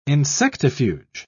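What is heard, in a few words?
"insectifuge", British English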